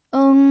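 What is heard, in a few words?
"eng", Mandarin Chinese, first tone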